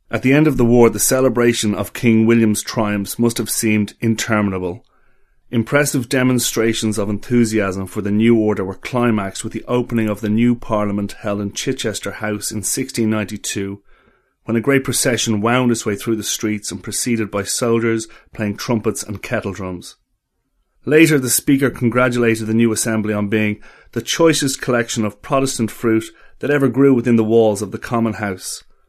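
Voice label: Gaelic English; Male; 30s-40s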